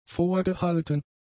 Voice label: "vorgehalten", German; adjectives